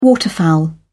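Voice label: "waterfowl", British English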